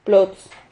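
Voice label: "plots", Spanish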